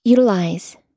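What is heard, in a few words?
"utilize", English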